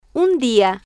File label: un dia, Spanish